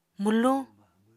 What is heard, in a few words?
"mwluñ", Apatani